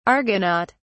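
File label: "argonaut", British English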